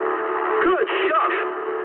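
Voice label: English player killed enemy mood high; War Thunder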